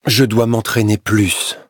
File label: levelup, fr; Cookie Run